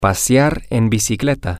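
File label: Spanish, to go bike riding, male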